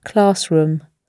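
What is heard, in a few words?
"classroom", British English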